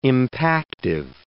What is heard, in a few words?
"impactive", English